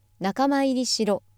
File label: female